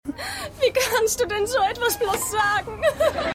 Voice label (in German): Frau, Stimmproben; Seinfeld